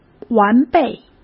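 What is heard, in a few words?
"完备", Mandarin Chinese; chinese-flashcards; hsk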